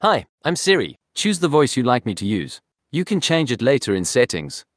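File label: English, South Africa; iOS Sounds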